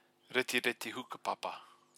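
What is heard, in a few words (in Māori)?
"retireti hukapapa", Māori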